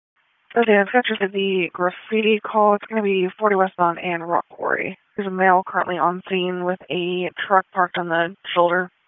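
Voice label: Raleigh Police Southeast District Dispatch, p25; wake p25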